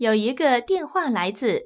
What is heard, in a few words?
this is a call from, zh-cn sounds ivr; BigBlueButton; freeswitch